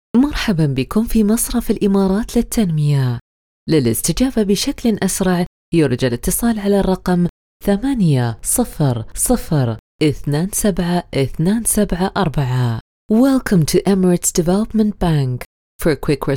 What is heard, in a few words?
khaleeji Female; khaleeji emirati voice recording